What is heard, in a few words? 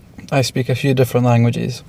interviewee male filler